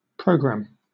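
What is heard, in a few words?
"programme", British English; pronunciations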